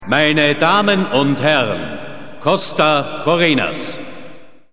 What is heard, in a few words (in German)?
ansage